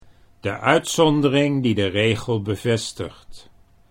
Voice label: "uitzondering regel", Dutch